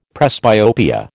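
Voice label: "presbyopia", American English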